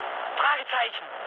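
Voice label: German enemy detected mood med, speech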